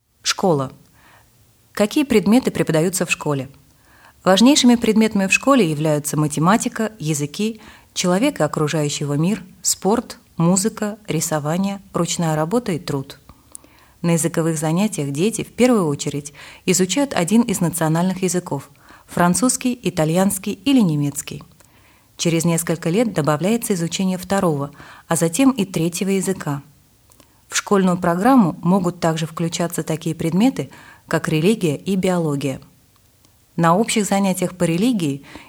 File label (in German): schule russisch